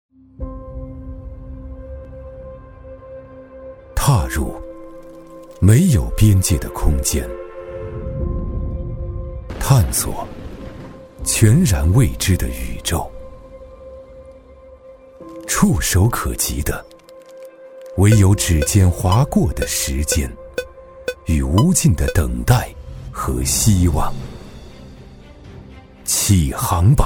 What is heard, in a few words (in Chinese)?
男 旁白解说 高端讲述; 中英双语 自然诉说; 无边探索